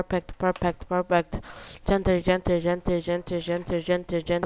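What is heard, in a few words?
"gentle", spoken word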